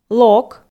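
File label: "лок", Komi, female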